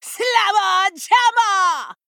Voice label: Female Troll Attack

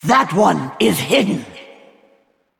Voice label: Female Val'kyr ERROR STEALTH

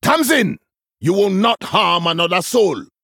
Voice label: Male Troll Introduction

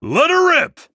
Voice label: Male Human

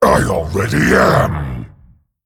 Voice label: Male Dragon

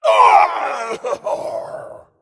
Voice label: Female Pirate Dragon Death

